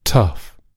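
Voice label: "tough", English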